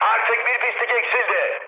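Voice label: player killed enemy, mood high, Turkish; speech; War Thunder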